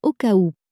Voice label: "au cas ou", french